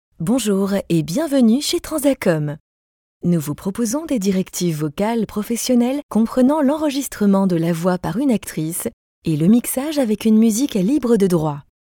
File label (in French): Souriante, actrices